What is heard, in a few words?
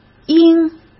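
"应", Mandarin Chinese; chinese flashcards; hsk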